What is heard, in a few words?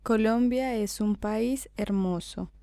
colombia es un pais hermoso, Spanish; Intonation; Pitch patterns